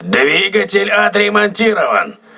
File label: voice message engine repaired, crew ships russian